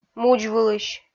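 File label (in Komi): "мудзвылысь", Komi, female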